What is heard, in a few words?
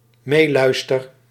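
"meeluister", Dutch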